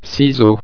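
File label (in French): "ciseaux", French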